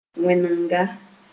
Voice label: "wẽnenga", Moore

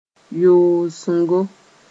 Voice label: "yoosengo", moore; crop (bird's throat)

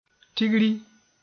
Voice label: "tigri", moore

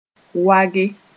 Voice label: "wage", Mooré; tamp (floor

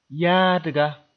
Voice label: "yaadga", moore

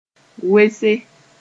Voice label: "wese", moore

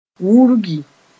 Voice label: "wurgi", moore; flay

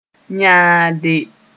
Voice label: "yãade", moore

